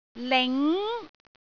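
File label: "ling2", Cantonese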